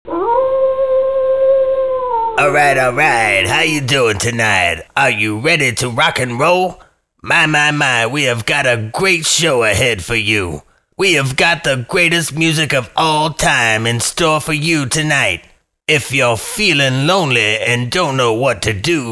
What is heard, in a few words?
Wolfman, voice